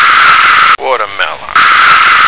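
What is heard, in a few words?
"watermelon", American English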